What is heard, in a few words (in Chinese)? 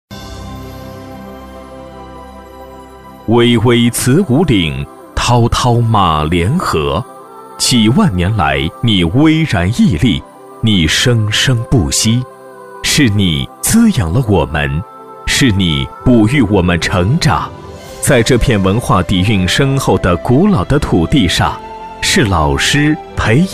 男 宣传片配音 浑厚深沉; 子午岭; 磁性稳重